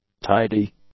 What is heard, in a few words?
"tidy", English; adjectives